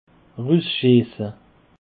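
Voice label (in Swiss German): "russchiesse", Alsatian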